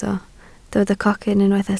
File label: "cocyn", Welsh